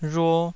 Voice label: "rhuo2", taiwanese